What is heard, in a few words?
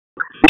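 Dispatch, p25; WSO DISP 1; wake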